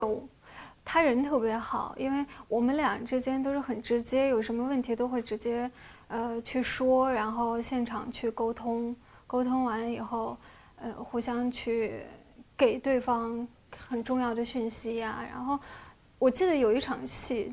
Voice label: interview